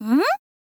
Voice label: "n", girl